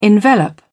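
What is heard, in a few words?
"envelop", English, British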